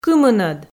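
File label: "кымынӧд", Komi, female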